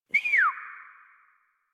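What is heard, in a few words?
horse stop adult, English; Warframe; Duviri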